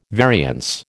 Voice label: "variance", American

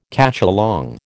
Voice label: "cacholong", American

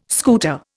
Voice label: "scooter", Indian

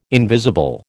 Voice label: "invisible", American

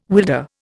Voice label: "wilder", Indian

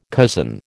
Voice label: "cousin", American

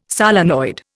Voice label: "solenoid", Indian

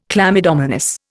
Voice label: "chlamydomonas", Indian

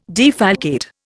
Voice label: "defalcate", Indian

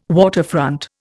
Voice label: "waterfront", Indian

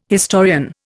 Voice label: "historian", Indian

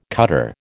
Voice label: "cutter", American

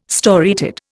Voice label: "storiated", Indian

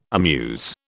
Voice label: "amuse", British

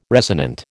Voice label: "resonant", American

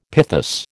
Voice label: "pithos", American

